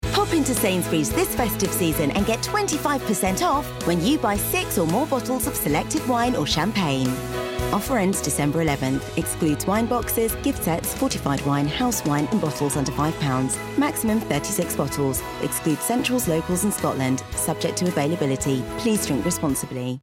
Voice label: female english festive commercial; sainsbury s; Devine Voices

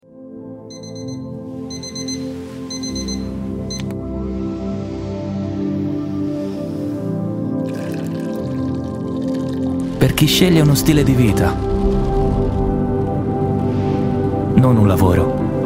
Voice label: male italian tv commercial; cat

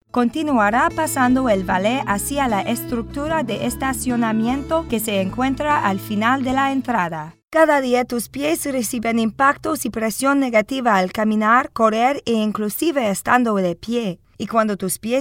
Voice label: female neutral spanish latam compilation

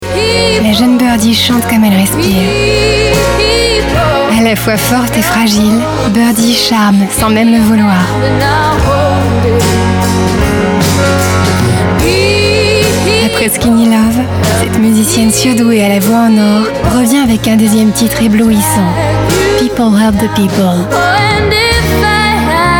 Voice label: female French CD commercial

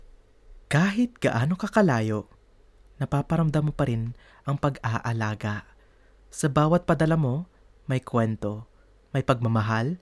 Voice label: male filipino commercial; english and filipino

